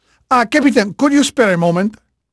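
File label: Spanish male, voice; Pirates Of The Caribbean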